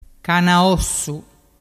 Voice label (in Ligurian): "canaossu", dialettu